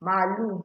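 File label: "malu", Nigerian Pidgin, female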